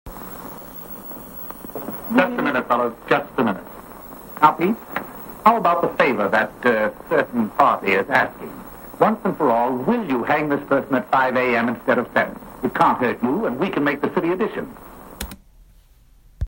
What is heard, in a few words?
male mid atlantic; the front page